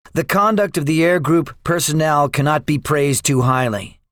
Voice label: debriefing, english; War Thunder